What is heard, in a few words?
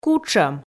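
"кутшӧм", Komi, female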